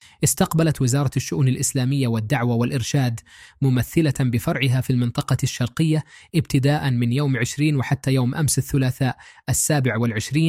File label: arabic